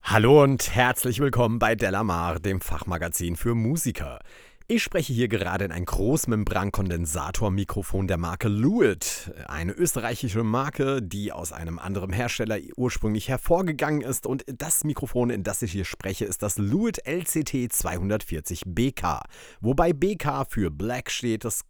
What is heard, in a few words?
lewitt lct240